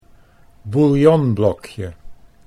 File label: "bouillonblokje", Dutch